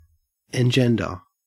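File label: "engender", Australian English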